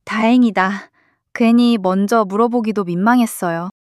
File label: shy